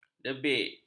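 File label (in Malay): "debik", Malay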